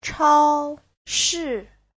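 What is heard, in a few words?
"chāoshì", Mandarin Chinese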